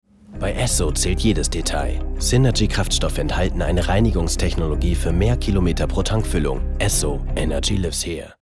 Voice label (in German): Werbung; Esso LUX